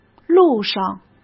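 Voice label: "路上", Mandarin Chinese; hsk; chinese-flashcards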